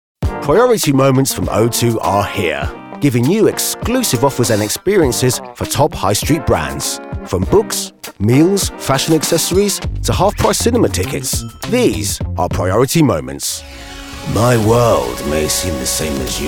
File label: Commercial